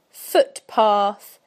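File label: "footpath", British English; PictureDictionary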